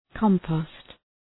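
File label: "compost", English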